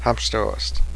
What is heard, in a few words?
sit down, Cheyenne language